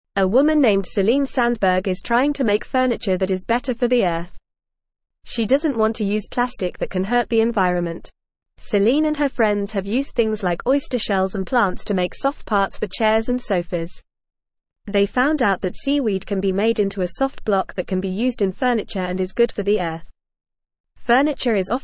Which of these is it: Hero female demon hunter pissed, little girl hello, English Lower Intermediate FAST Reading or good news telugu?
English Lower Intermediate FAST Reading